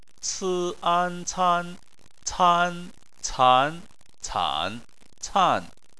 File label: "can", Chinese